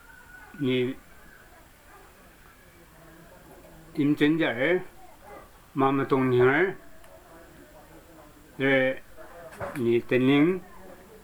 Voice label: story